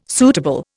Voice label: "suitable", Indian